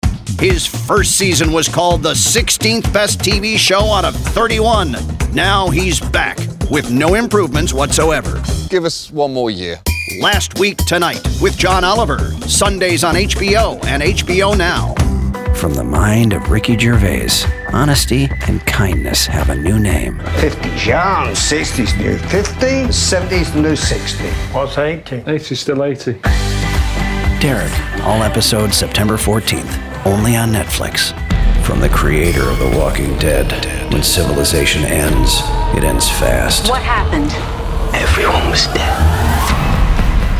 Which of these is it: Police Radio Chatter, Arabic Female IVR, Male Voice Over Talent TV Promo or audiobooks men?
Male Voice Over Talent TV Promo